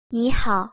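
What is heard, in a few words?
"ni hao", Chinese pronunciation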